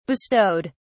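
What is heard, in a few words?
"bestowed", British English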